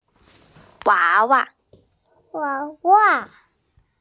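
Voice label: "wa2wa5", Mandarin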